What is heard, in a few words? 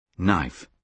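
"knife", British English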